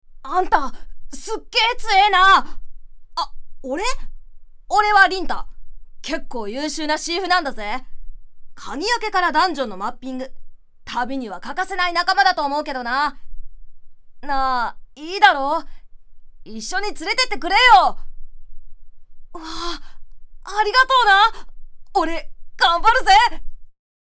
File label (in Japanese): キャラクター少年熱血